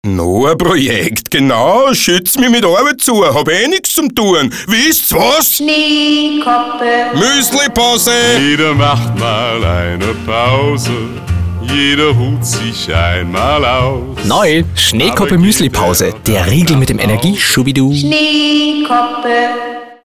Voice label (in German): Muesli Pause Sarkastisch